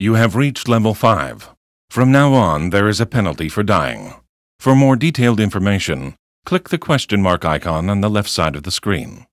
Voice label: tutorial voice; lineage2

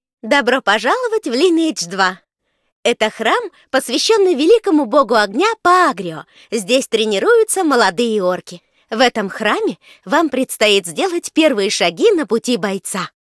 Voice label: tutorial voice, ru; lineage2

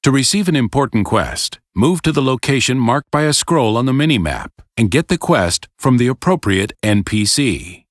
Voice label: tutorial voice; lineage2

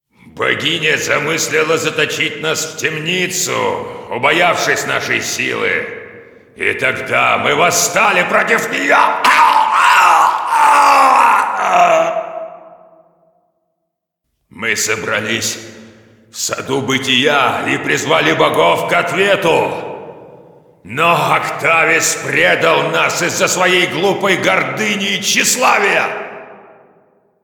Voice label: cinematic voice, ru; lineage2

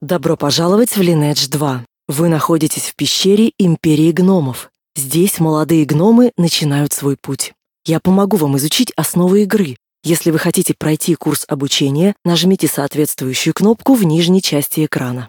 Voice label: tutorial voice, ru; lineage2

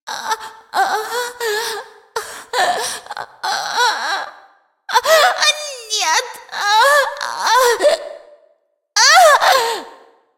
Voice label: cinematic voice, ru; lineage2

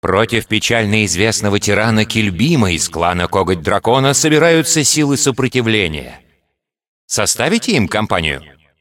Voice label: cinematic voice, ru; lineage2

